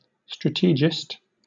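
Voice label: "strategist", British English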